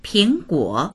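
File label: "ping2guo3", Mandarin Chinese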